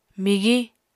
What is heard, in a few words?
"migiñ", Apatani